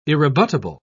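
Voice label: "irrebuttable", British English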